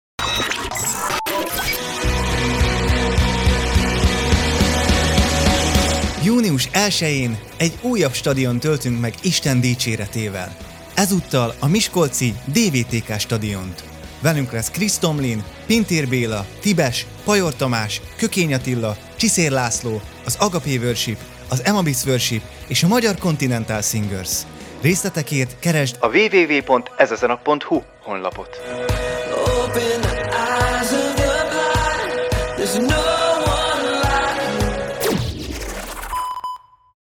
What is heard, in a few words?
radio spot; Miskolc